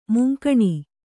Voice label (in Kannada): "ಮುಂಗಾಣು", Kannada